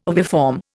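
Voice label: "oviform", Indian English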